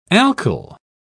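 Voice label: "alkyl", British English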